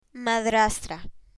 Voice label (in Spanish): "madrastra", Spanish; learn spanish